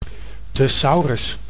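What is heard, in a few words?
"thesaurus", Dutch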